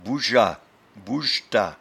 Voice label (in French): "bougeat", patois jura